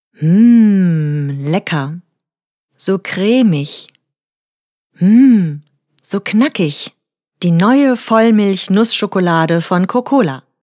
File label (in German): Werbung Schokolade